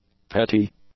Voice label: "petit", english; adjectives